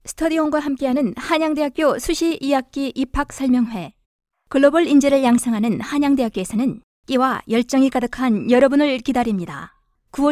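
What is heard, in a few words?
Korean General; GoLocalise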